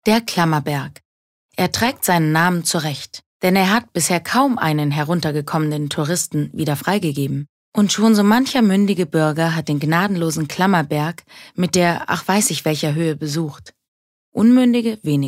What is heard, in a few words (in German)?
Kommentar (Neutral)